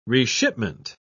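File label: "reshipment", British English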